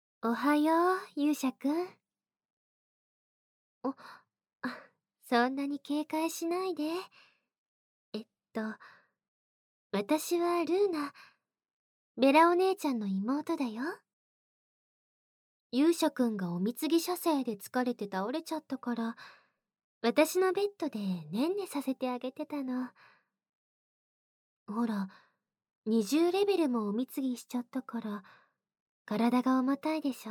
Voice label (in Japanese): ディープリラクゼーションと甘やかし好き好きキス, ASMR; ルーナ; Lv.80勇者; SE音 有り